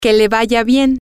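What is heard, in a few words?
farewell, spanish, female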